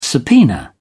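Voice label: "subpoena", British English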